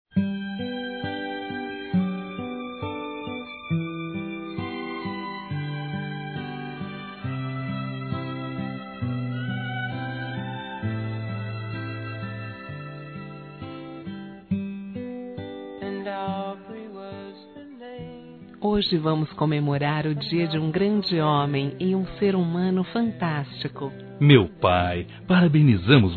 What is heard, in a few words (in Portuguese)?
Aniversário Pai Duas Vozes; Aniversários; Aniv Familiar